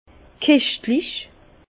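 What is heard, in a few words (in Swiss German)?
"keschtlich", Alsace Bas Rhin; vin; suave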